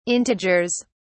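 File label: "integers", British English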